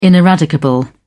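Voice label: "ineradicable", British English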